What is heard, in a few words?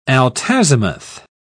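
"altazimuth", British English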